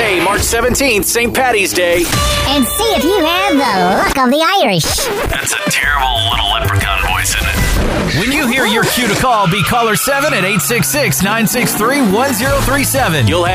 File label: Country Imaging